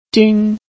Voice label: "jin1", Chinese